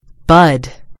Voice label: "bud", en GB; gcompris

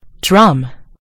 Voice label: "drum", en US; gcompris

